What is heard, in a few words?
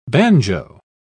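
"bagnio", British English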